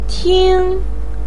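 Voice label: "ting", Mandarin pinyin, first tone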